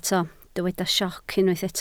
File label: "sioc", Welsh